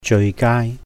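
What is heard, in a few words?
"jeui gāai", the best, Cantonese; 最佳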